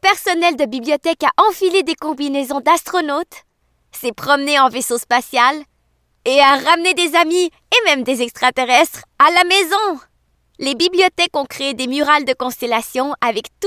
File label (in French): FR ENFANT